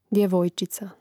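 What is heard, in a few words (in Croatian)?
"djevojčica", Hrvatski; mreznik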